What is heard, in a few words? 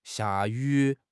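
"xia4 yu3", Mandarin Chinese; HSK1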